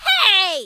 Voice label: hurt; Colette